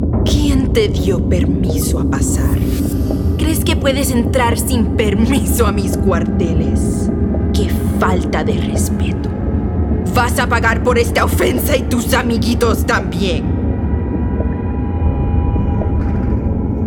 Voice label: spanish latin american video games